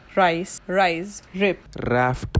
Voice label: rhotic rice rise rip raft